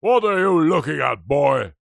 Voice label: human old grumpy man english says angrily what are you looking at boy; zapsplat